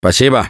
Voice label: thanks, russian; Gambler

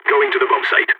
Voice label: going to defend bomb site, balkan; Counter-Strike Global Offensive

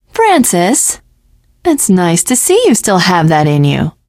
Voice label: teengirl, voice; Left 4 Dead 2; l4d1 finale

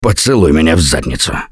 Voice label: reaction negative, russian; Gambler

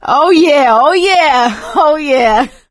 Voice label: hurrah, survivor voice; Left 4 Dead 2; producer

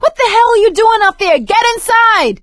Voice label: stay together inside, survivor voice; Left 4 Dead 2; producer

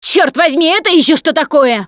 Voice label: warn tank, Russian; Left 4 Dead 2; Producer; Survivor Voice

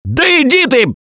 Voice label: reaction negative, russian; Gambler

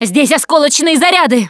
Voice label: explosive ammo, russian, Survivor Voice Producer

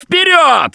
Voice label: emphatic go, russian; Gambler

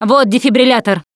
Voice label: defibrillator, russian; Producer

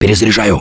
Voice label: Reloading Quiet, russian; Gambler

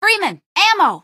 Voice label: ammo, female; npc; Garry's Mod; hl2

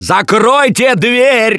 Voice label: Close The Door, russian; Gambler

